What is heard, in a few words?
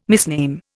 "misname", Indian